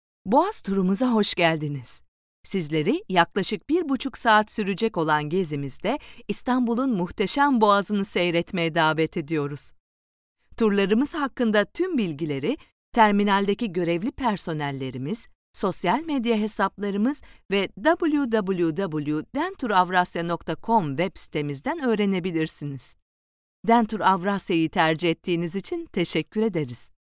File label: foreigner